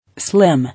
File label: "Slim", English